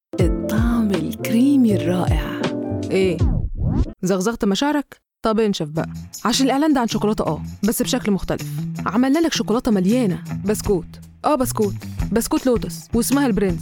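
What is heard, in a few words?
Arabic female Commercial Egyptian dialect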